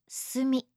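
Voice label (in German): "sumi", japanische Mädchennamen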